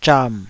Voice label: "zham3", Taiwanese